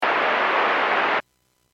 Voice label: Aviation 122.7; KSTE